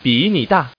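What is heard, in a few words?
Man, "beat you"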